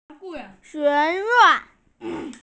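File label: "college", Mandarin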